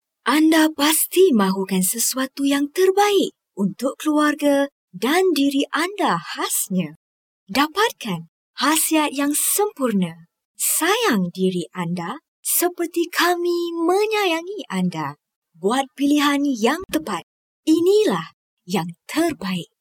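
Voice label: Malay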